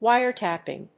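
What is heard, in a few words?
"wiretapping", English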